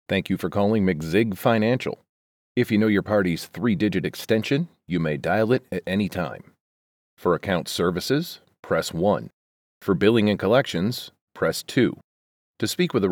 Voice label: IVR; ConnectMe